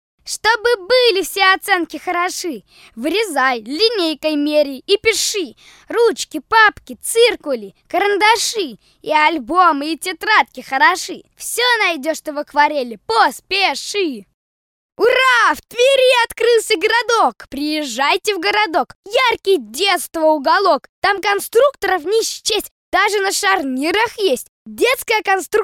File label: rus, детский голос; child